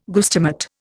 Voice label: "guestimate", Indian